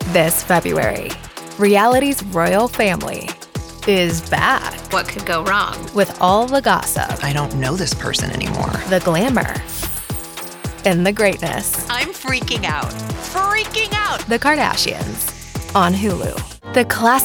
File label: Promo